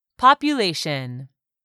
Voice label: "population", English; 3000 words

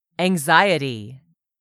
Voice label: "ANXIETY", english; 3000 words

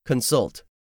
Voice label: "consult", English; 3000 words

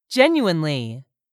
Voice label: "GENUINELY", english; 3000 words

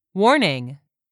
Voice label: "warning", English word; 3000 words

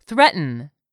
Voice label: "threaten", English; 3000 words

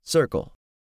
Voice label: "CIRCLE", english; 3000 words

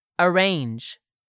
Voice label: "arrange", english; 3000 words

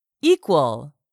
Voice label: "equal", English; 3000 words

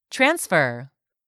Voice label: "TRANSFER", english; 3000 words

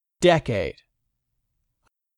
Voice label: "DECADE", english; 3000 words

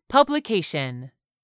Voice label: "publication", English; 3000 words

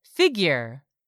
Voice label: "figure", English; 3000 words

